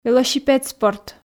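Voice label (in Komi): "велосипед спорт", Komi, female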